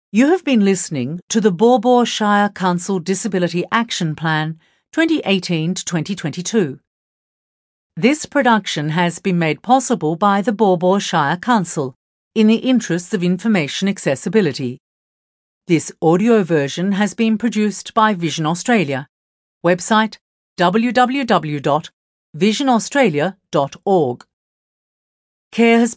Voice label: closing announcement; disability action plan 2019